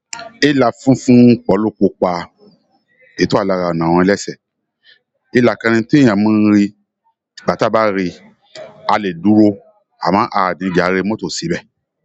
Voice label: YORUBA